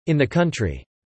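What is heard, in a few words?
"in the country" spoken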